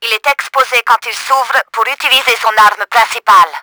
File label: SHOOT NEXUS, french; Battle Engine Aquila; XBOX